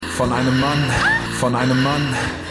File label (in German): Mann Film; Keine Gnade Fuer Dad